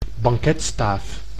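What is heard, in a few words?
"banketstaaf", Dutch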